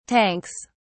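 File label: "tanks", British English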